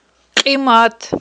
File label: "кьимат", Aghul